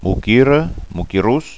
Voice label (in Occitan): "moquira", Gascon